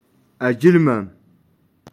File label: "Adjlamam", Touareg; Guelta